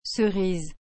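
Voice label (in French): "cerise", French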